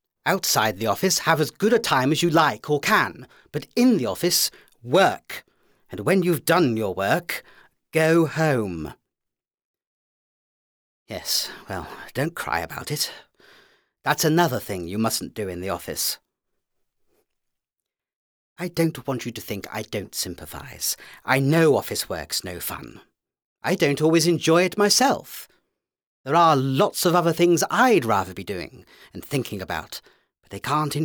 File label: character acting; London Wall